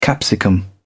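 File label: "capsicum", English, British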